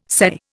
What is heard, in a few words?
"sei", Indian